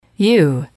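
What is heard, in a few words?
"you", female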